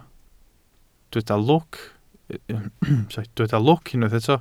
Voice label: "lwc", Welsh